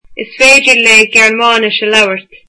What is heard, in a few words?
she can speak German, irish